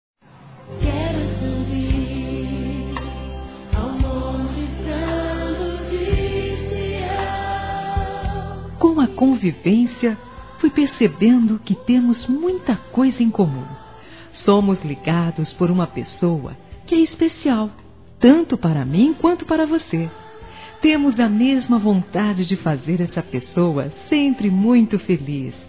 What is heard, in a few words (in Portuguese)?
ANIV GENRO OU NORA (F); Aniversários; ANIV. Evangelico; Fem